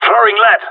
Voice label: in combat, radio; GSG9